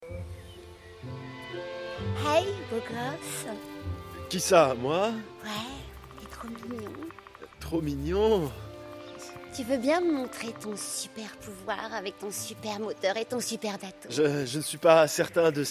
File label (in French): Doublage; Tales of the Riverbank